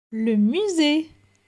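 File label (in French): "Le musee", French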